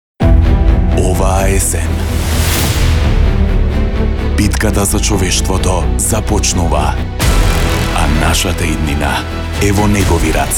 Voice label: macedonian movie trailers